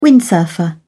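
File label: "windsurfer", British English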